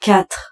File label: French - 7